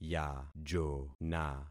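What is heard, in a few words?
"yajona", concatenated syllables; Affixing experiment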